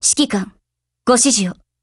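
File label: HELLO, Japanese; GF Alarm; AN94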